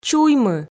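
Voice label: "чуймы", Komi, female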